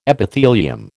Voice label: "Epithelium", American